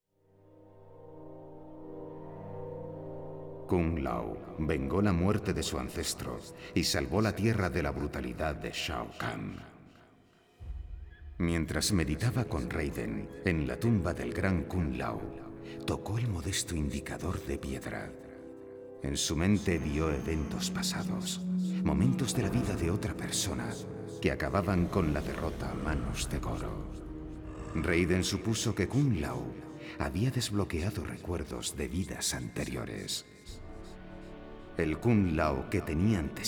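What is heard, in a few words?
music voice over endings Spanish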